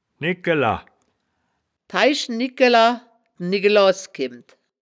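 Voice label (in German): "nigkela", Wittlicher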